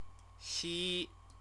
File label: "xi", Chinese